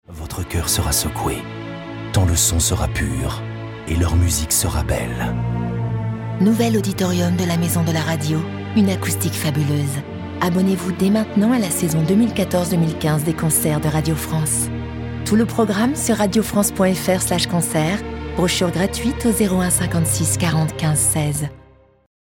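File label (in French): evenement posee, voix off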